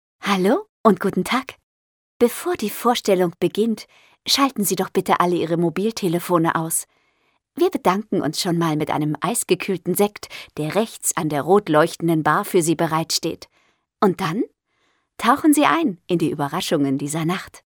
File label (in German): Information charmant